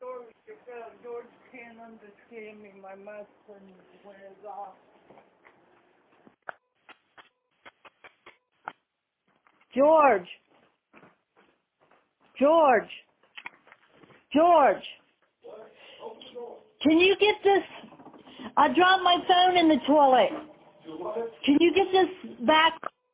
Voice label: voicemail